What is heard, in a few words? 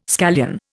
"scallion", Indian